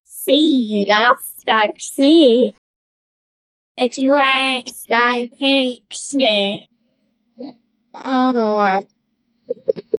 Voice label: someone who sounds exactl, hip hop

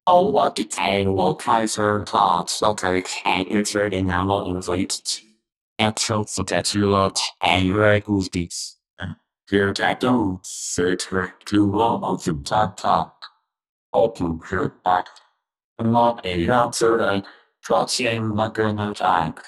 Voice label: the narrator says theres, narration

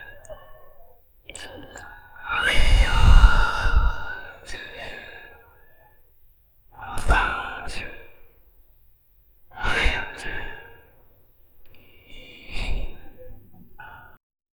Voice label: people whispering; human voices

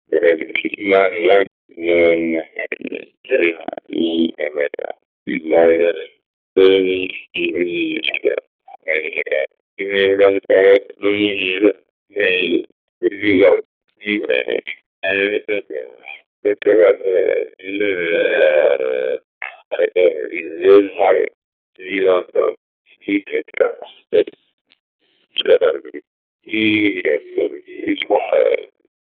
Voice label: an alien speech, gibberish

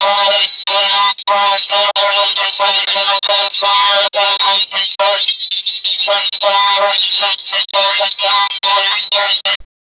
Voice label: robot says, crash

